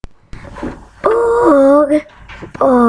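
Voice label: "bog", spoken word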